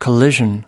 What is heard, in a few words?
"collision", US pronunciation